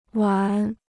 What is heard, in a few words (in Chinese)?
"完", Chinese